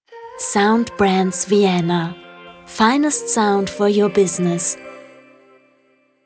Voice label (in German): Brand Stimme